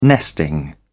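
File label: "nesting", British English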